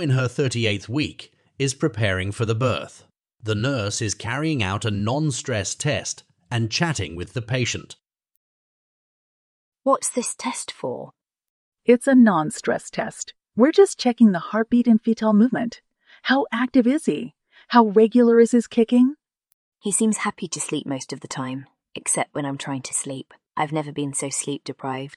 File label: Conversation; Bedside Manner Intermediate